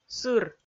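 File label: "сыр", Komi, female; audio dict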